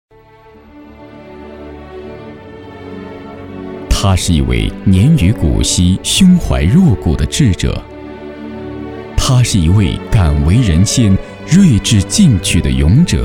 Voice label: 专题, 人物, 雷达专家

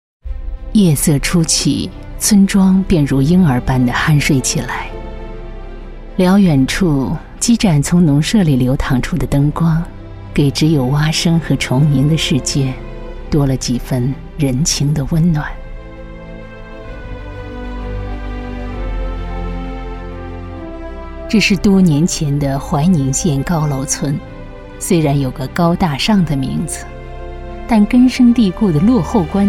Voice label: 女 专题 政府 乡村建设 大气

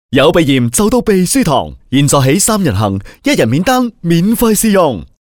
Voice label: 男粤 广告 促销; 粤语; 鼻舒堂

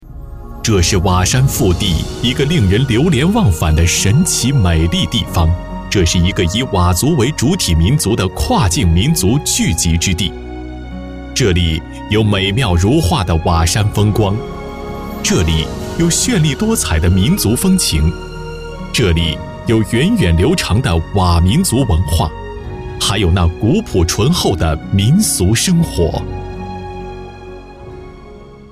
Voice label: 男国 专题 政府 沉稳; 民族

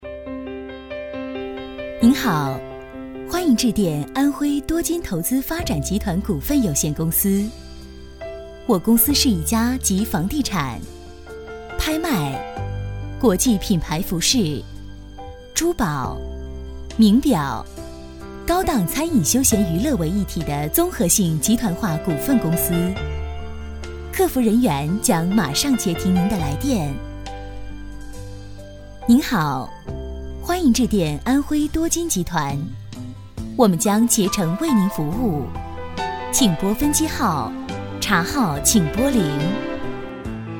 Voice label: 彩铃 时尚; 投资公司

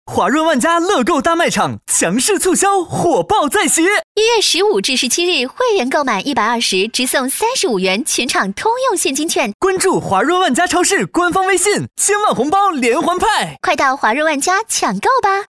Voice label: 对播 男女对播广告; 华润万家

